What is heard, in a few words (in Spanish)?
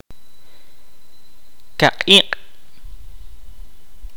"viento", Kaqchikel